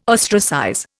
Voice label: "ostracize", Indian

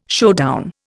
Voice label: "showdown", Indian

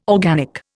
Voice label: "organic", Indian

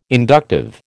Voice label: "inductive", American

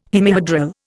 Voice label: "hemihedral", Indian

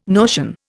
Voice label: "notion", Indian

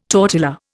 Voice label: "tortilla", Indian

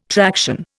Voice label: "traction", Indian

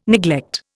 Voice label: "neglect", Indian

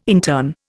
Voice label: "intern", Indian

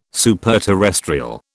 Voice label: "superterrestrial", British

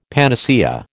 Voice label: "panacea", American

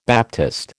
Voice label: "baptist", American

